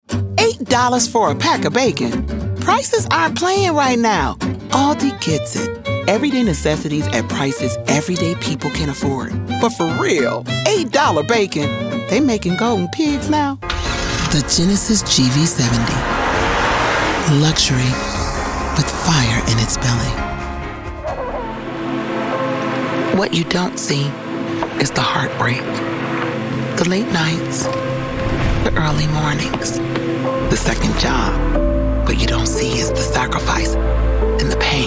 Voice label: Commercial